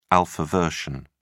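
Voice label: "alpha version", British English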